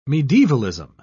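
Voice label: "medievalism", English, British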